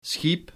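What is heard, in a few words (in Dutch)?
"schiep", Dutch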